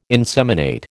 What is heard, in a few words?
"inseminate", American